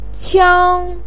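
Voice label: "qiang", Mandarin pinyin, first tone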